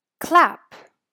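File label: "Clap", English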